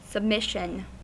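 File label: "submission", US English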